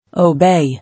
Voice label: "Obey", English

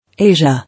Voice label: "Asia", English

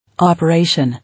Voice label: "Operation", English

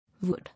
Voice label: "Wood", English

